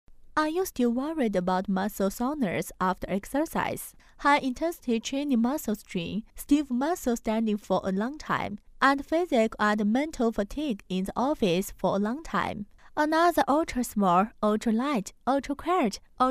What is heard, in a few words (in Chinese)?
女 英文专题; 迷你口袋按摩筋膜枪; 成熟知性; 女C3-英文专题《迷你口袋按摩筋膜枪》; 百变女王